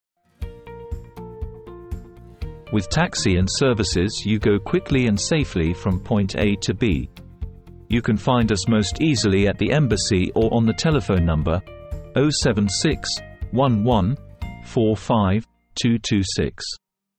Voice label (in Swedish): Taxi